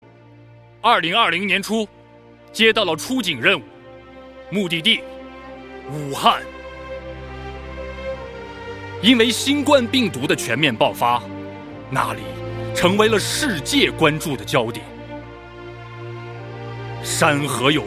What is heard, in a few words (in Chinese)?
宣传片独白